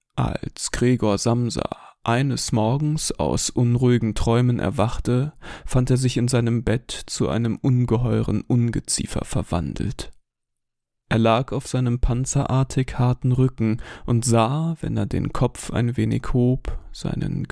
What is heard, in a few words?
GERMAN